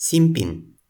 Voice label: "sinpin", Toki Pona; lipu linku